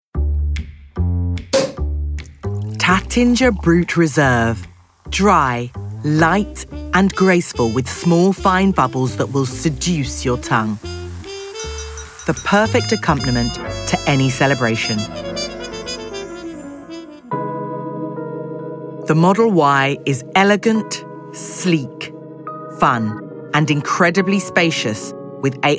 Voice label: Commercial

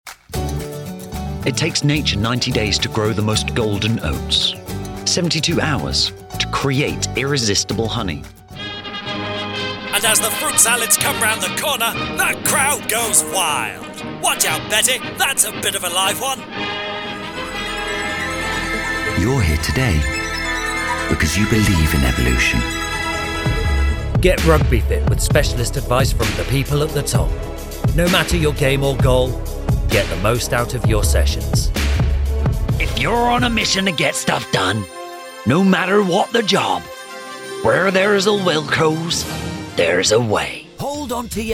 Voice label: Commercial

